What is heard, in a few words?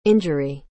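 "injury", English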